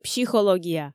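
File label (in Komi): "психология", Komi, female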